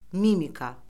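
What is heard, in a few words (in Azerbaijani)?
"mimika", Azerbaijani; Orfoqrafiya Lüğəti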